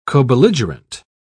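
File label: "cobelligerent", British English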